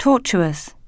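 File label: "tortuous", English; oxford api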